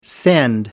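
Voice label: "send", English, British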